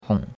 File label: "hong3", chinois; Cours-de-Chinois